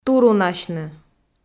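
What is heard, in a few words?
"турунасьны", Komi, female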